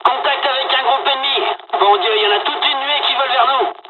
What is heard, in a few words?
dialog, dialogs french; War Thunder